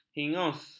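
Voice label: "hingus", Malay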